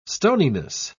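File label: "stoniness", English, British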